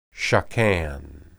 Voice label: "shakan", Hebrew